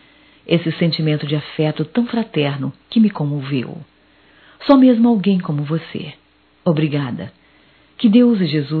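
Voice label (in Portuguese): Agradecimento mensagem de natal, feminino; Telemensagens 2017; Natal & Ano Novo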